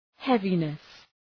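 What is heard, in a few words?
"heaviness", English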